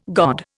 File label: "god", Indian